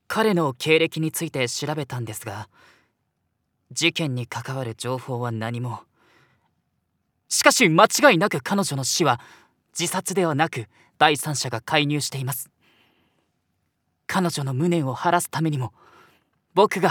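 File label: voice sample, rookie hot-blooded detective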